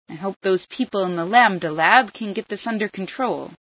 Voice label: lambda lab, female